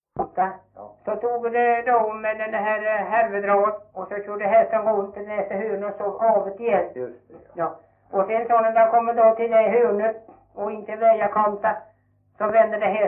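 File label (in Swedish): Veddige Halland, Svenska sagor dialekter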